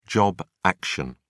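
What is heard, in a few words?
"job action", British English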